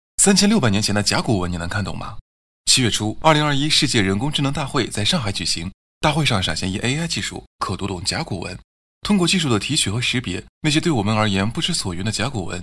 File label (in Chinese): 男 自媒体解说 自然轻松快速解说; 甲骨文; MG飞碟说配音